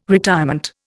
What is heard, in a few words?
"retirement", Indian